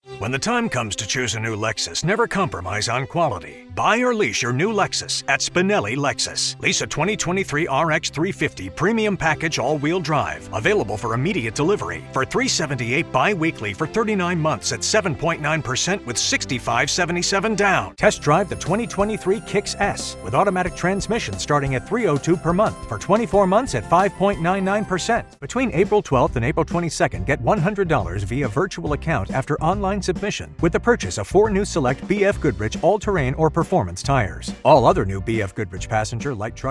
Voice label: english american automotive